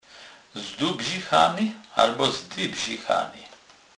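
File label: "zdubzichany", Polish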